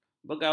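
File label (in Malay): "begawan", Malay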